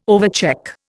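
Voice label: "overcheck", Indian English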